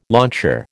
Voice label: "launcher", American

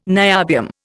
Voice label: "niobium", Indian

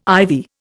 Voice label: "ivy", Indian

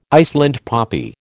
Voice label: "Iceland poppy", American

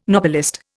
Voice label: "Nobelist", Indian

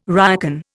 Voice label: "ryokan", Indian

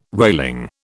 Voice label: "railing", British

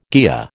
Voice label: "kea", American

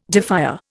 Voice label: "defier", Indian

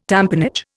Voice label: "tamponage", Indian

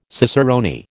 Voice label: "cicerone", American

